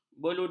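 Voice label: "beluduh", Malay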